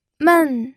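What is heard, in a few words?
"men", fourth tone, pinyin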